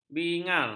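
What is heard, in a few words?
"bingar", Malay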